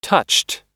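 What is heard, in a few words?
"touched", American English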